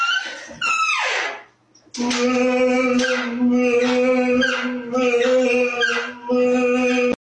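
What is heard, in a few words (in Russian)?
muzhik otkryvaet dver i oriot, man opens door and yells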